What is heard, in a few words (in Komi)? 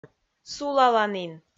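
"сулаланін", Komi, female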